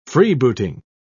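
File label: "freebooting", British English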